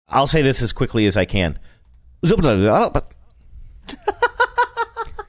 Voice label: saying ill say this as quickly as i can quick speaking gibberish